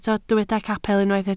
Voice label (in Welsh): "capel" Welsh